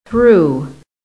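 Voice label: "threw", American English; PHONETIC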